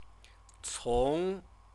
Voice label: "cong", Chinese

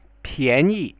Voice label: "pianyi", Spoken

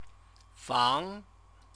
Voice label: "fang", Chinese

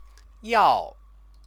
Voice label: "yao", Chinese; 要; want to; modal verb

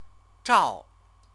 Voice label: "zhao", Chinese; CTCFL; University of Oxford

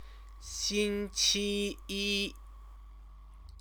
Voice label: "xingqiyi", Chinese; Chinese lessons

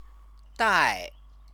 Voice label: "dai", Chinese

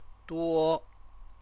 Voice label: "duo", Chinese

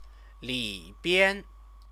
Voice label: "libian", Chinese

